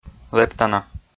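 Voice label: "лэптана" Komi, male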